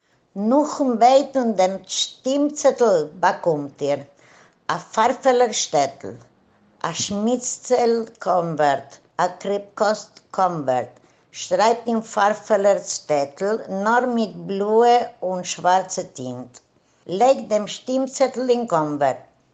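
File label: Yiddish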